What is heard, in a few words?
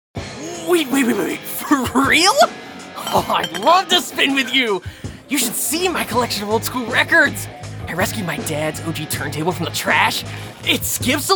character demo